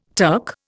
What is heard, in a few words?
"Turk", Indian